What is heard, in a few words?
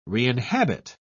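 "reinhabit", British English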